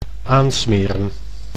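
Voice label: "aansmeren", Dutch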